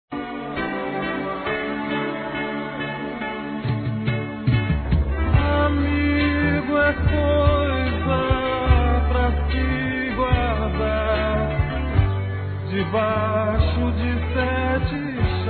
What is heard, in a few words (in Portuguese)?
AGRADECIMENTO A AMIZADE DO AMIGO, M; Telemensagens; Convites e Agradecimentos; Telemensagens 2017